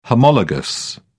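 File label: "homologous", British English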